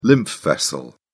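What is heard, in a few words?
"lymph vessel", British English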